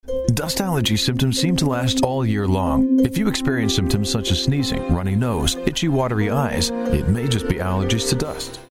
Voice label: male english canadian neutral north american accent dust allergies